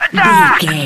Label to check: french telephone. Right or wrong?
Wrong